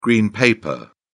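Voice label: "green paper", British English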